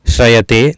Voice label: "Srayate", Sanskrit